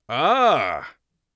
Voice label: surprised, Mage Voice; Mage Voice Pack